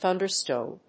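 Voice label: "thunderstone", English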